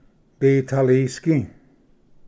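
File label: "ditalisgi", Cherokee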